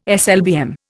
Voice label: "SLBM", Indian

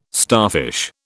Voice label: "starfish", British

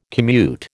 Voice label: "commute", American

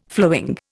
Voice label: "flowing", Indian

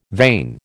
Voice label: "vain", American

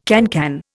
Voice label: "cancan", Indian

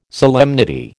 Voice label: "solemnity", American

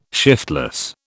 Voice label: "shiftless", British

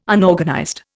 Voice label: "Unorganized", Indian

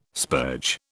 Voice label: "spurge", British

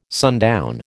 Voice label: "sundown", American

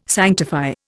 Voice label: "sanctify", Indian

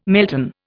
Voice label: "melton", Indian

